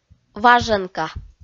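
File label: "важенка", Komi-Zyrian, female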